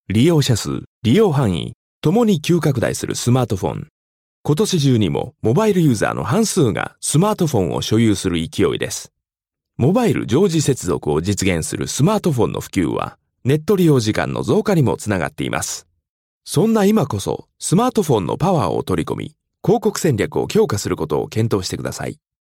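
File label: Japanese, male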